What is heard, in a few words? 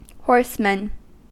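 "horsemen", US English; pronunciations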